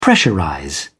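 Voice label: "pressurize", British English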